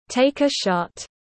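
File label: "take a shot", English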